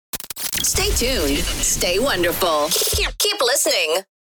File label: Female radio voice dj sweeper stay tuned keep listening